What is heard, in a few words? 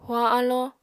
"huañ alló", apatani